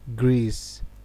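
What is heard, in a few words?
"grease", Nigerian Pidgin, male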